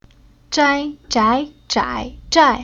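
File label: "Zhai", chinese syllable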